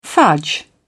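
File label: "fudge", British English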